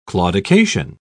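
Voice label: "claudication", English, uk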